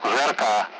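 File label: Speech Sound Russian Common Allied Flight; SAM; DCS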